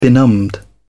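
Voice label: "benumbed", English, British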